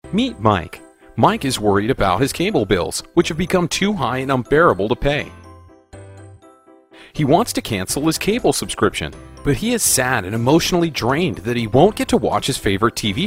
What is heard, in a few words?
English - American